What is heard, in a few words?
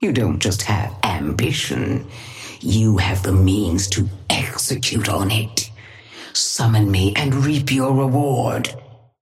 Patron female ally start; nano